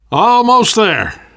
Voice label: world hospital, namvet, survivor voice